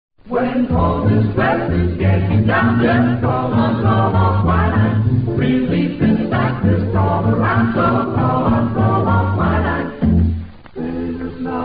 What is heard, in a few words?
commercials, quinine; bromo